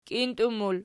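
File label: "kintum" plural, Archi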